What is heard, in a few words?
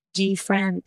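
Voice Acting es, female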